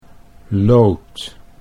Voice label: "loot", Dutch

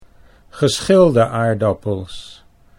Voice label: "geschilde aardappels", Dutch

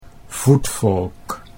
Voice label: "voetvolk", Dutch

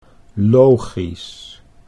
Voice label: "logisch", Dutch

